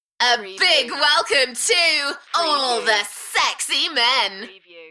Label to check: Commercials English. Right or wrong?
Wrong